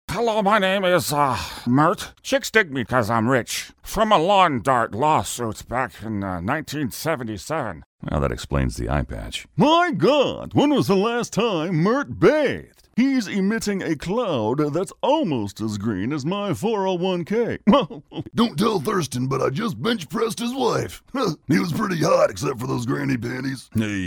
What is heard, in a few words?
Character